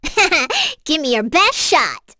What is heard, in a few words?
Vox Skill, en; kingsraid; voices heroes